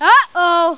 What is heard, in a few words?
uh oh